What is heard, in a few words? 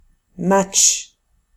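"match", French; famille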